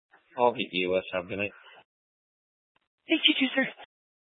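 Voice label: Sheriff Dispatch, Scanner audio recording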